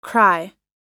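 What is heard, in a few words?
"cry", English; oxford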